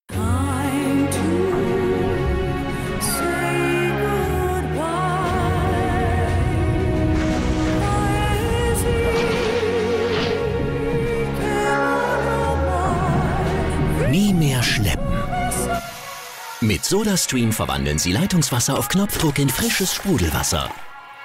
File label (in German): TV Spot, Sprecher; Sodastream